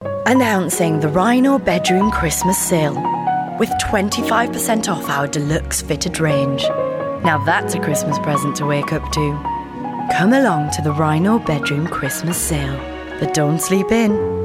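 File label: British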